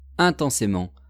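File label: "intensement", French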